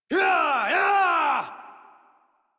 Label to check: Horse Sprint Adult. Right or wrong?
Right